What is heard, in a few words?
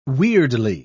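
"weirdly", British English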